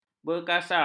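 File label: "berkasar", Malay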